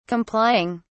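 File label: "complying", British English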